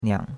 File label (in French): "niang3", chinois; Cours de Chinois